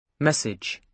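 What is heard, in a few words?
"message", British English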